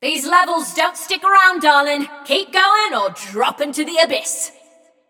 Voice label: Scrap Run General Reminder, Announcer; Flux